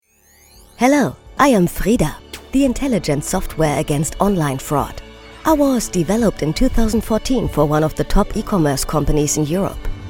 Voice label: Imagefilm EN; FRIDA

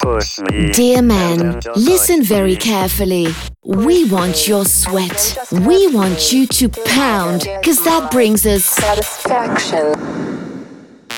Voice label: TV Trailer British English; My Husband is a Handyman

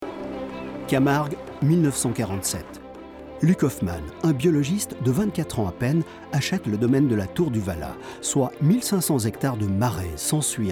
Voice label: Documentary

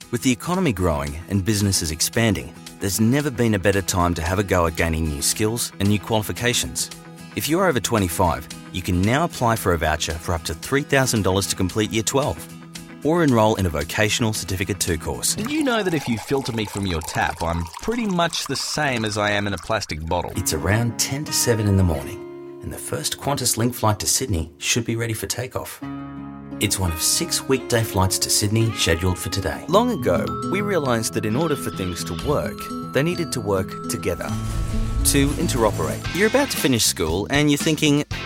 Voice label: Australian Conversational

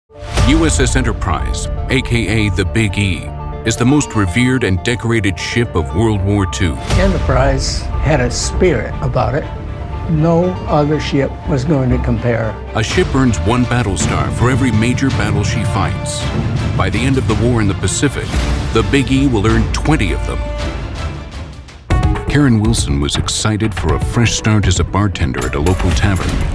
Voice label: TV NARRATION

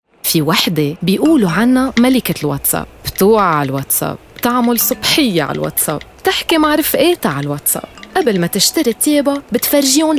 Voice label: Lebanese Radio Spot; Alfa Telecom

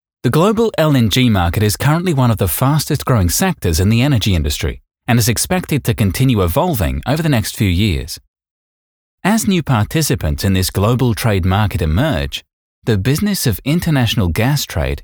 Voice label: VO eLearning Australian

